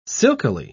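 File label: "silkily", British English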